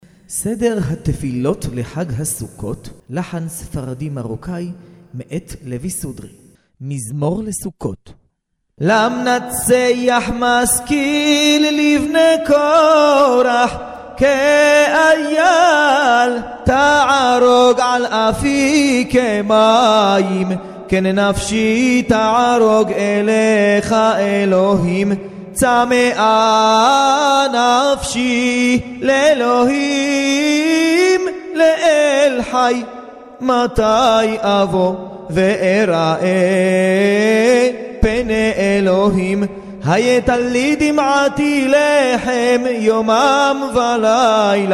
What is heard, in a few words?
recorded prayer sukkot morocco